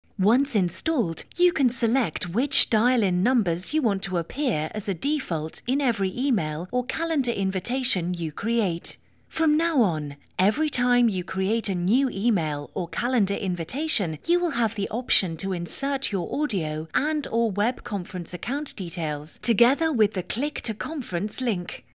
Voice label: Corporate, UK; Voice-over demos